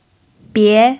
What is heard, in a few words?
"bie2", Mandarin pinyin